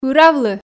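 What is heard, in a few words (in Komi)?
"пыравлы", Komi, female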